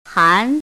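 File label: "han" second tone, pinyin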